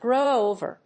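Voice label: "grow over", English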